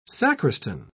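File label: "sacristan", English, British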